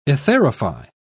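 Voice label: "etherify", British English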